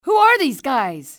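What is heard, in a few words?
who are, english female; XBOX; civ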